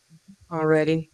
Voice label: Voice Acting, es, F0